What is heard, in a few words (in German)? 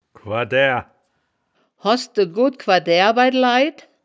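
"quadaeaer", wittlicher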